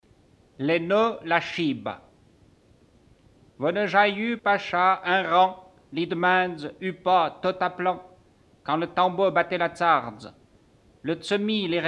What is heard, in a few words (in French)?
patois de Sembrancher poesie; patois valaisans; La cible c'est nous